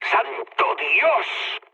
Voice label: trick, mood med, speech; War Thunder